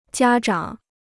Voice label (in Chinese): "家长", Chinese